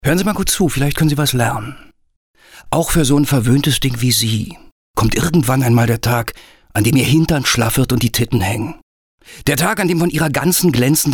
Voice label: Frech; Fremdtake

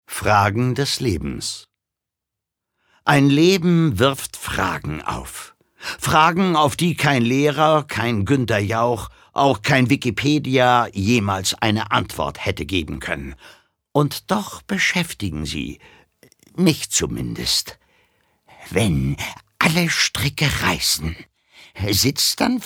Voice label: Schauspiel Lebendig

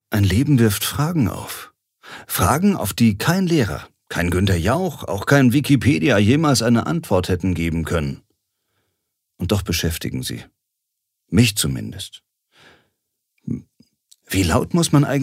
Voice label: Schauspiel Dynamisch

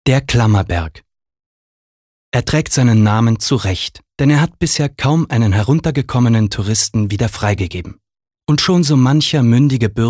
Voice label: Kommentar, Neutral

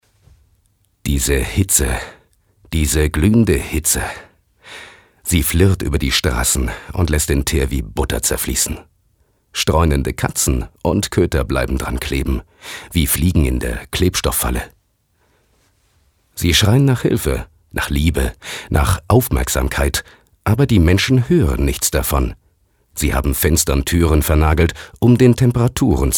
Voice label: Schauspiel, Neutral

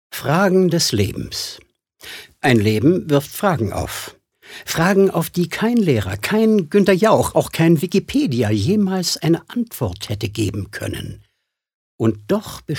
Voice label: Schauspiel Witzig

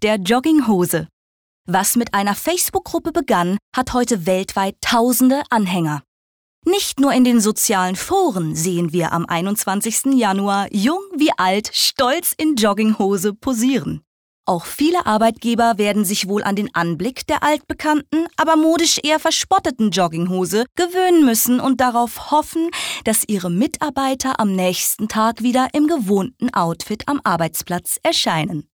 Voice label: Feiertag Freundlich

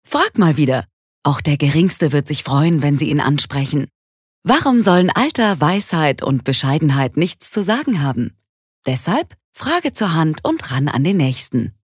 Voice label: Werbung Freundlich

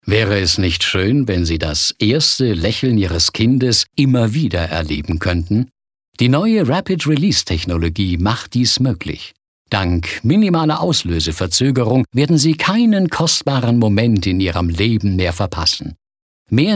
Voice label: Freundlich

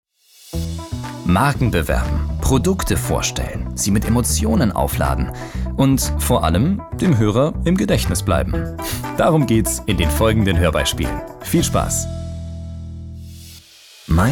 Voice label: Werbung Dynamisch

